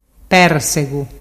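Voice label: "persegu", Ligurian dialect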